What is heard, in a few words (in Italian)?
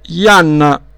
"janna", dialetto; jànna ghianda